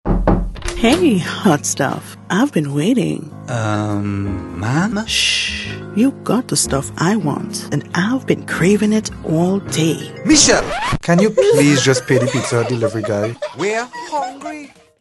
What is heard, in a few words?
Radio Ad; Pizza Hut